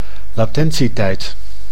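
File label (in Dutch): "latentietijd", Dutch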